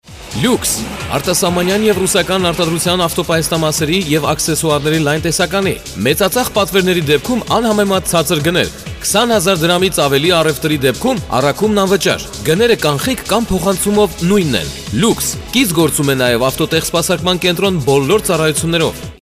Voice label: locutor armenio male armenian voiceover

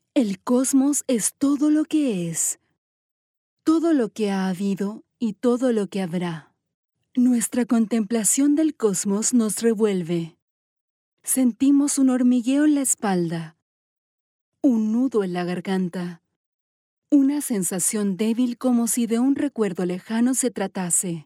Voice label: locutora chilena chilean voiceover

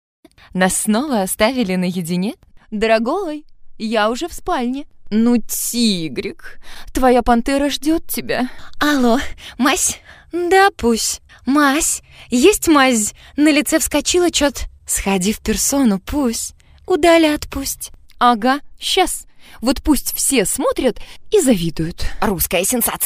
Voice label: locutora rusa russian talent

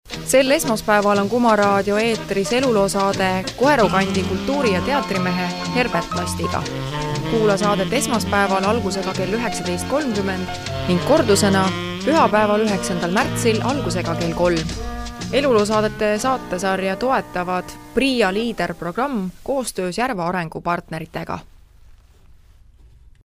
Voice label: locutora estonia estonian voiceover; locutora nativa de Estonia

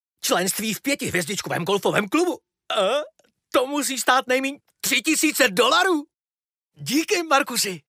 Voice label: locutor checo Czech voiceover

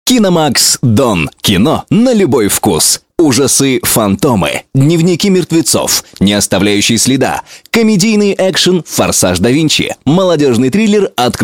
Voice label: russian voiceover locutor, locutor ruso

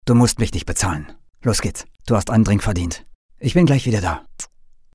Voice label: locutor aleman german voiceover; Escena Digital

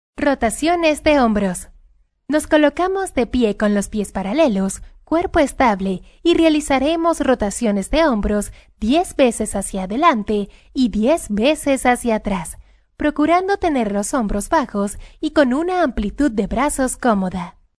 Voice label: venezuelan voiceover locutora venezolana; locutora de Venezuela

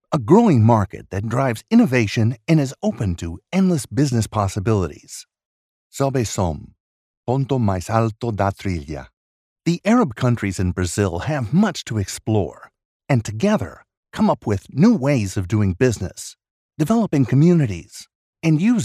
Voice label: locutor ingles american english voiceover; Spanish cartoon dubbing voice over agency

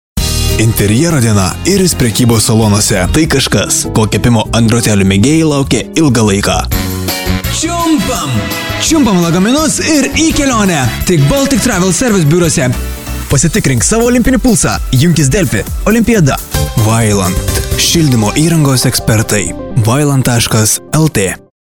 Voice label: lithuanian voiceover locutor lituania

